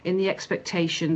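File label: "iN THe expectation", Standard Southern British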